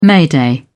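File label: "mayday", British English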